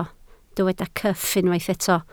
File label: "cyff", Welsh